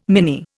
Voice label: "mini", Indian

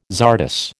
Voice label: "czardas", American

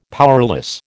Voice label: "powerless", American

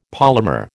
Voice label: "polymer", American

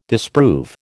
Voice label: "disprove", American

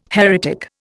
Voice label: "heretic", Indian

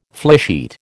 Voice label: "flysheet", American

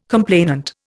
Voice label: "complainant", Indian

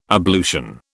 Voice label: "ablution", British

